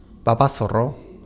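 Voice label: "babazorro", Basque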